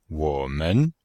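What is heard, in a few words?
"wo3men5", Chinese; Cours-de-Chinois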